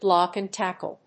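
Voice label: "block and tackle", English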